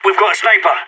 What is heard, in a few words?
sniper spotted, radio; SAS